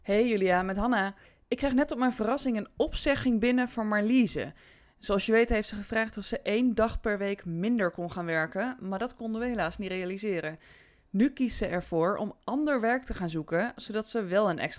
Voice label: voicemail